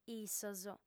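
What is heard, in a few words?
"issos", female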